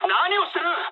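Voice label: Japanese attack position mood high; War Thunder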